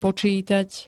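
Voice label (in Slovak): "počítať", Slovak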